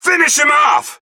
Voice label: male en; Sonic Forces